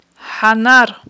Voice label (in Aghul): "хIанар", aghul